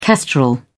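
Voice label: "kestrel", British English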